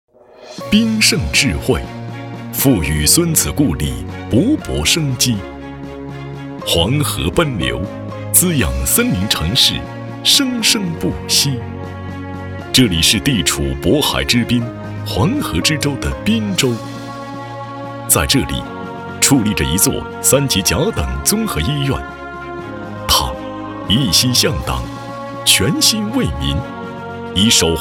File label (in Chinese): 男 医院专题类; 滨州人民医院